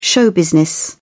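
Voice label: "show-business", British English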